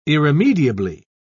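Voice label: "irremediably", British English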